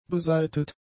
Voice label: "besaitet", German; adjectives

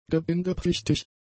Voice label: "gebindepflichtig", German; adjectives

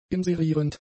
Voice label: "inserierend", German; adjectives

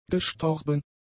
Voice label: "gestorben", german; adjectives

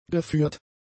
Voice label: "geführt", German; adjectives

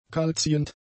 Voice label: "kaltziehend", German; adjectives

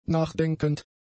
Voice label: "nachdenkend", German; adjectives

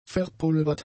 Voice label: "verpulvert", german; adjectives

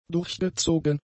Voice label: "durchgezogen", German; adjectives

